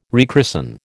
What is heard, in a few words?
"rechristen", American